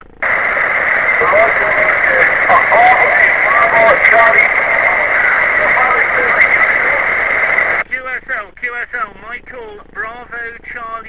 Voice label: qso